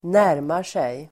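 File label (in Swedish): "närmar sig", Swedish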